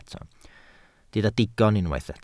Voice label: "digon", Welsh

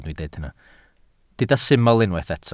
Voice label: "syml" Welsh

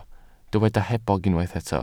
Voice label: "hebog", Welsh

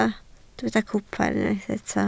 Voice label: "cwpan", Welsh

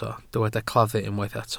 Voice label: "claddu", Welsh

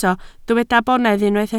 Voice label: "bonedd", Welsh

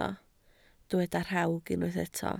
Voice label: "rhawg", Welsh

